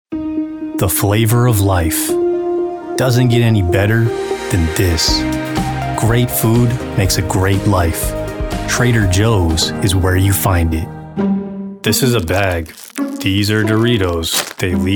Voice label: Commercial